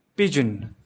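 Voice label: "pigeon", English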